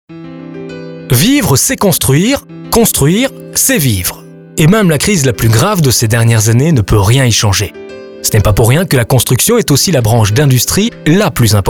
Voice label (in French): Voix francophone